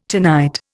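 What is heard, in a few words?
"tonka bean", Indian; Dictionary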